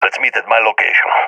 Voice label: request regroup, balkan; vo; balkan epic